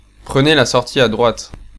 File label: Exit Right, French, male